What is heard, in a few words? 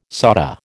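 "sauté", American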